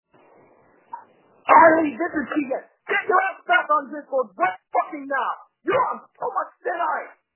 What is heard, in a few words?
Voicemail